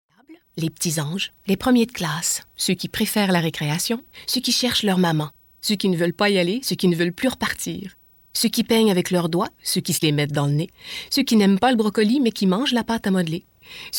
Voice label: Annonceur, voix actrices

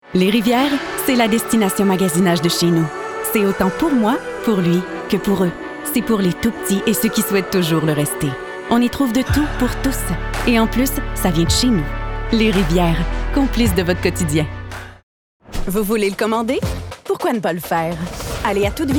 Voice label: voix, comédienne

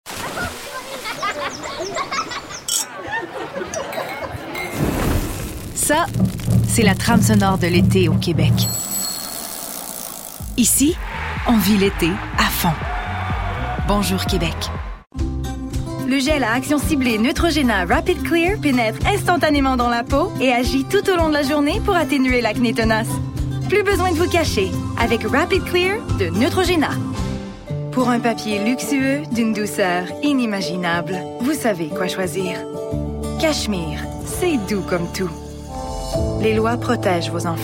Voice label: Voix fr

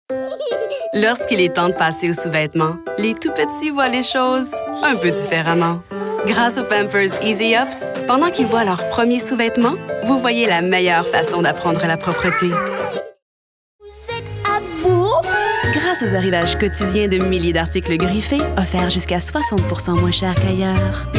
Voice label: Demo Annonceur

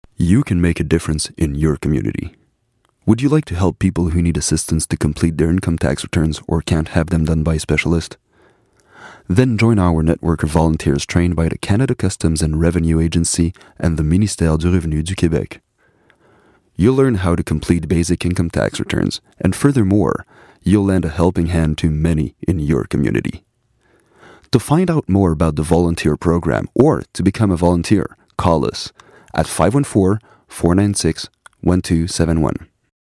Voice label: Voix anglais

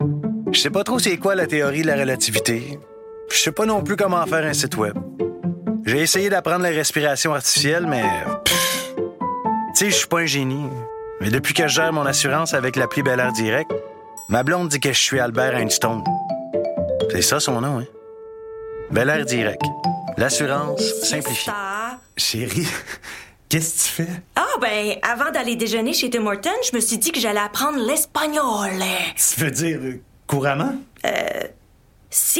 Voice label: Publicite fictive

